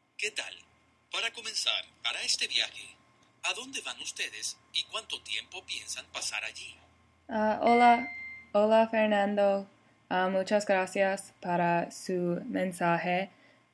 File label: informal dialogue